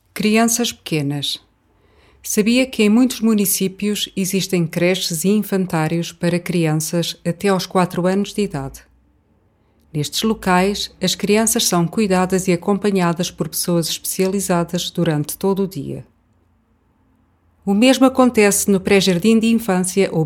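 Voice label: Portuguese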